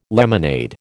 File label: "lemonade", American